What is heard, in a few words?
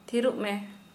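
Santali, female, silent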